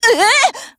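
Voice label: MOOD Japanese; 97typeSMod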